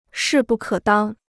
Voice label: "势不可当", Chinese

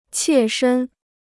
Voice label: "切身", Chinese

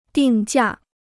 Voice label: "定价", Chinese

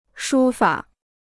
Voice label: "书法", Chinese

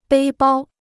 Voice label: "背包", Chinese

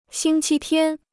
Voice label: "星期天", Chinese

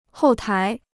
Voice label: "后台", Chinese

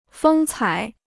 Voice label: "风采", Chinese

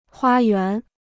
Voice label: "花园", Chinese

